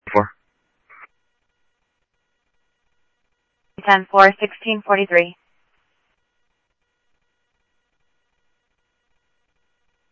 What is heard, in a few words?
scanner audio